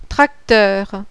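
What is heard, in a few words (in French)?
"TRACTEUR", French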